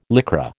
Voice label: "Lycra", American